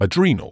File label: "adrenal", medical english uk; ad-REE-nuhl; ædˈriː.nəl